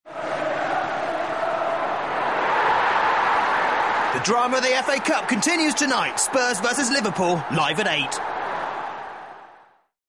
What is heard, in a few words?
football, voiceover